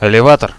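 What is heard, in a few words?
elevator, radio, Russian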